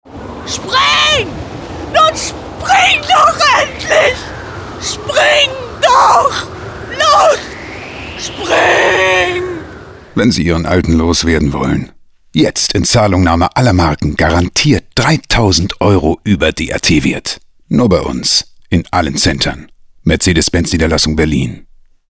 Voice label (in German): werbung Spring; Mercedes